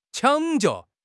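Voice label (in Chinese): "抢救", Chinese; HSK6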